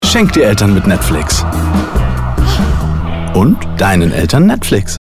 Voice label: Werbung, nice, chummy, witty, Commercial, German